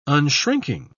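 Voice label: "unshrinking", British English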